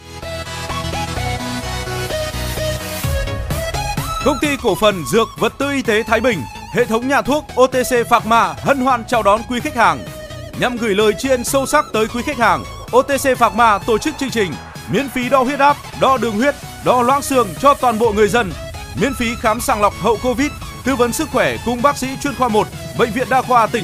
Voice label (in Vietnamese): Thu am quang cao nha thuoc Phat loa diem ban; OTC Pharma; Cong ty vat tu y te Thai Binh